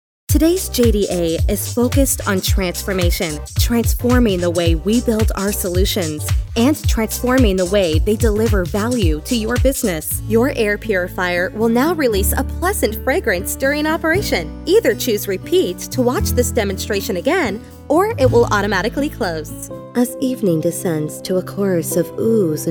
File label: Narration